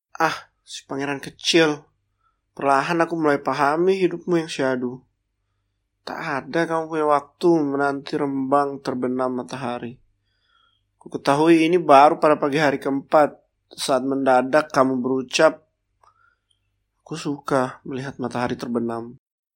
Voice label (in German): Indonesisch Jakarta